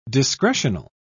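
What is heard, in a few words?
"discretional", British English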